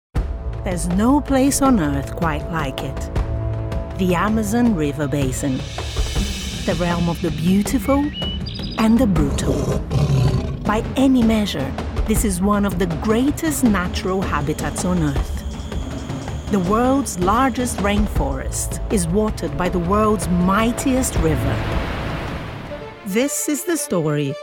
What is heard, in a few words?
brazilian female english narration